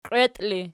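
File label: "qieiti" ergative, Archi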